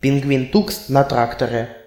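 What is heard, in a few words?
tux tractor desc, Russian; tuxpaint-stamps; vehicles; farming; cartoon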